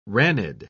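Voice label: "ranid", British English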